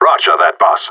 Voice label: affirmative, radio; GSG9

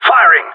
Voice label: in combat, radio; GSG9

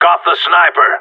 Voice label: sniper down, radio; GSG9

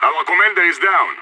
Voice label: commander down, radio; IDF

